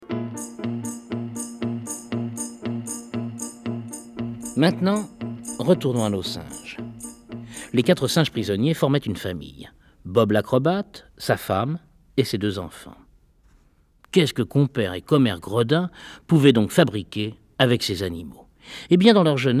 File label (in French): Le grand cirque Tête en Bas, livres audio; Dahl, Roald; Deux gredins